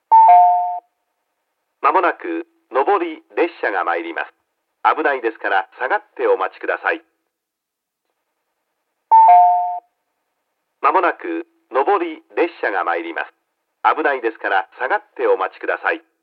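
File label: platform 2 up-bound approaching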